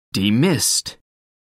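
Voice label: "demist", British English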